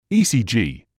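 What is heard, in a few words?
"ECG", British English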